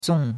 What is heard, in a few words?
"zong4", chinois; Cours-de-Chinois